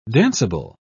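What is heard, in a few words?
"danceable", British English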